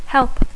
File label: "help", English